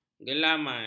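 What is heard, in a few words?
"gelamai", Malay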